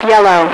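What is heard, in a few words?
"yellow", female radio voice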